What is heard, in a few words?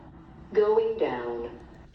otis lift going down